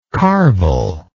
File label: "carvel", English